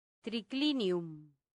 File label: "triclinium", Latin; santillana